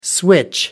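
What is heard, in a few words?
"switch over", British English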